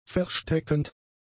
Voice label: "versteckend", German; adjectives

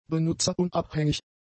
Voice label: "benutzerunabhängig", German; adjectives

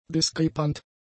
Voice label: "diskrepant", German; adjectives

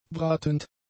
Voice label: "bratend", German; adjectives

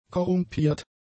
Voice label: "korrumpiert", German; adjectives

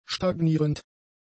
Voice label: "stagnierend", German; adjectives

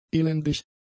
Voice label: "elendig", german; adjectives

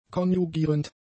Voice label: "konjugierend", German; adjectives

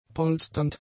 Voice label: "polsternd", German; adjectives

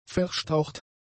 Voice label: "verstaucht", German; adjectives

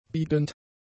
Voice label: "biegend", German; adjectives

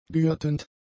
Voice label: "gürtend", German; adjectives

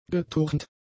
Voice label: "geturnt", german; adjectives

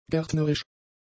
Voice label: "gärtnerisch", German; adjectives

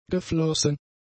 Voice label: "geflossen", German; adjectives

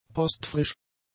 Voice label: "postfrisch", German; adjectives

